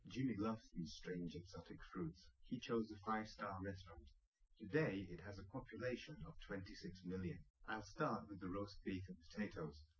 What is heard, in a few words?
male liverec 250ms 1m sim; SiSEC08; SiSEC underdetermined